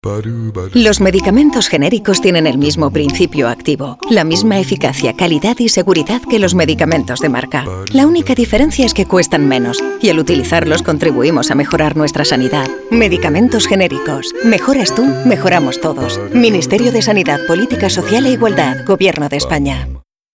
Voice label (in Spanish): castellano; 20 segundos; medicamentos Genericos EFG